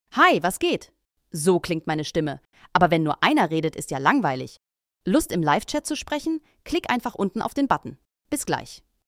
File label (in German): Stimmprofil DE weiblich; Red Bull